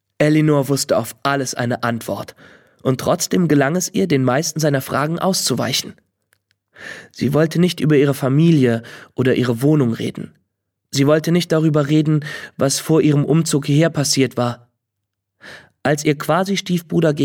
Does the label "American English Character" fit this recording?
No